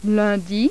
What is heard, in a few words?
french